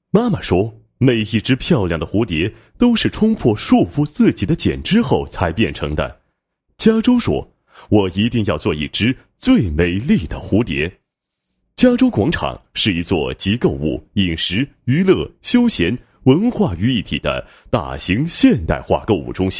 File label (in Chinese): 男-宣传片; 嘉洲广场